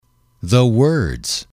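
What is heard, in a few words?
"words", male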